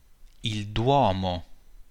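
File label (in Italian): "duomo", Italian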